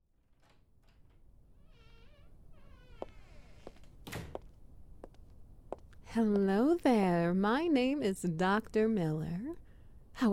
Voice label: Ambience SFX Wet Noises; Obsessive Submissive Disorder